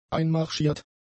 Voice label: "einmarschiert", German; adjectives